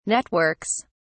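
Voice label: "networks", British English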